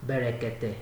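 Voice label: "berekete", Nigerian Pidgin, male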